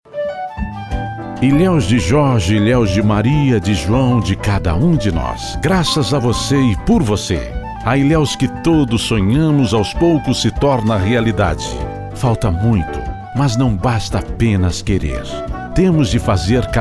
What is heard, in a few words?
male brazilian comercial; ideasonora